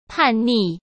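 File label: "叛逆", Mandarin Chinese; chinese-flashcards; hsk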